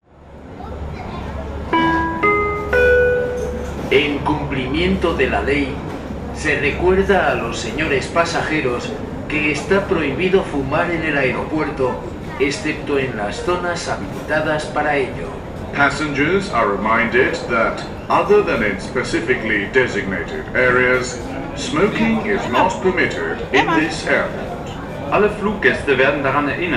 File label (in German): das Rauchen auf diesem Flughafen, Flughafen Ansagen